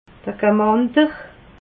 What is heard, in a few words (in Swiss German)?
"gamander", alsace